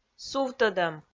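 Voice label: "сувтӧдӧм", Komi-Zyrian, female; audio dict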